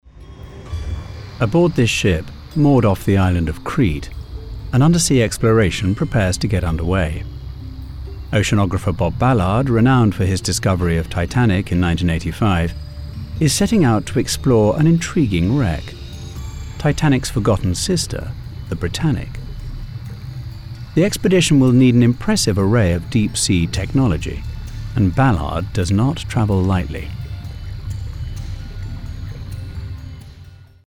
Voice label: narrative; Oceans

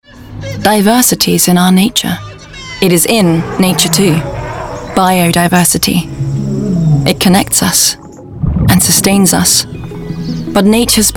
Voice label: Ad; WWF Lose It; WWF LOSE IT COMMERCIAL

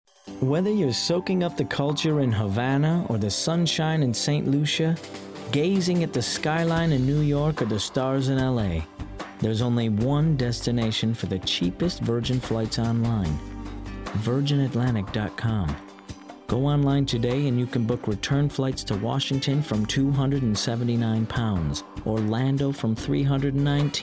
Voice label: English commercial

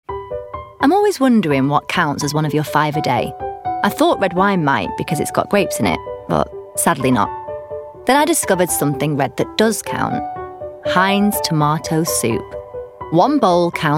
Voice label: Ad; Heinz

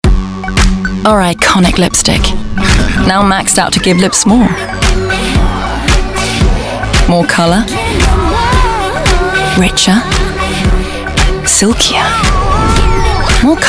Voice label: Ad; MAC; MAC COMMERCIAL

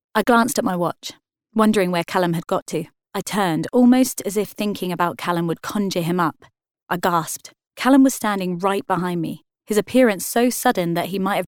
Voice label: audiobook

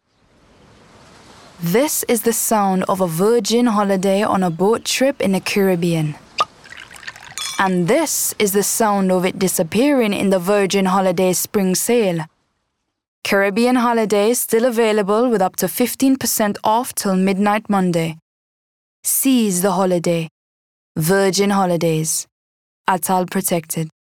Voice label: Ad, Caribbean Accent; Virgin Holidays; VIRGIN HOLIDAYS COMMERCIAL (CARIBBEAN)

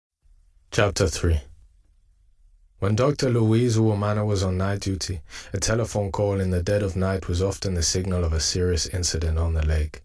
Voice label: audiobook; Kill the Devil

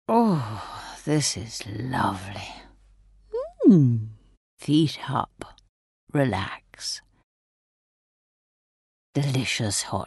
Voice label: Commercial Reel

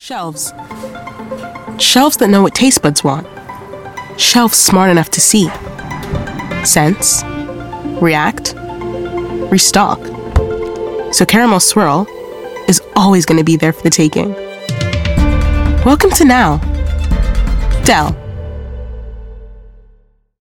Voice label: Ad, US; Dell

